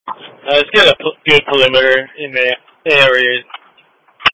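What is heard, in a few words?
Police Patrol Dispatch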